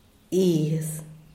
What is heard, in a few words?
"iz", Diaz Ordaz; ano